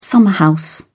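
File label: "summerhouse", British English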